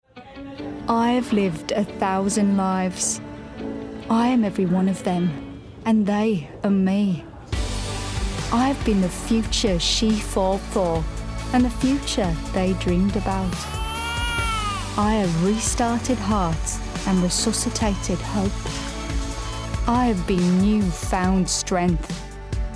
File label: Brummie; NHS; Midlands